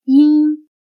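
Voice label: "因" Chinese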